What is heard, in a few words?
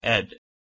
"h1ed" PIE